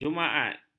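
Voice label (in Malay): "jumaat", Malay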